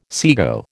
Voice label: "sego", American